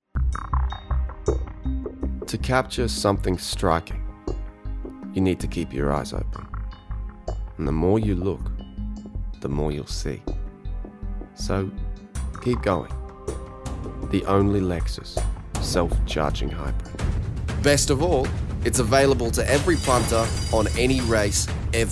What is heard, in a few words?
Voice Over